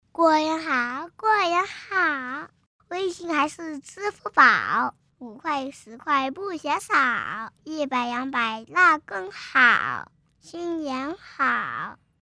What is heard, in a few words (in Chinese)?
男 男真童 过年好; 真童配音